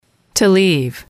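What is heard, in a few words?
"to leave", English, female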